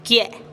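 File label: "kie'", Teotitlán del Valle Zapotec; mi cabeza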